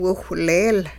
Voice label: I am swimming along, hupa

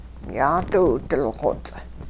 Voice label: we all bit off something crunchy, hupa

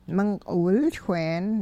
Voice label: dam, hupa

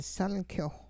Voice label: celery, hupa

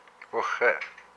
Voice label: "my foot", hupa

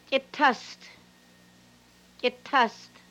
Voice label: acorn dough, hupa

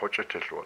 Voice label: "his rib", hupa

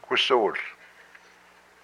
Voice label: "my throat", hupa

